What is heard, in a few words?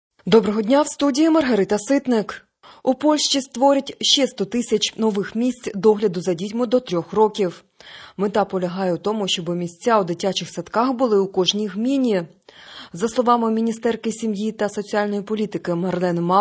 Ukrainian Morning News